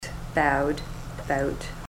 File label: bowed bout, ontario